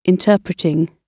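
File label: "interpreting", British English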